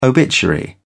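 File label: "obituary", British English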